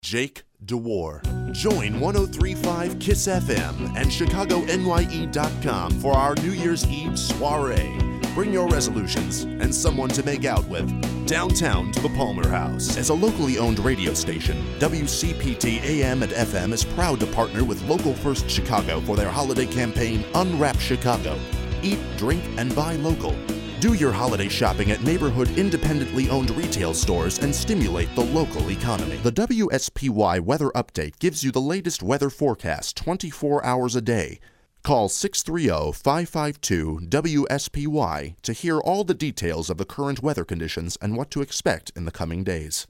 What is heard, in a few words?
promo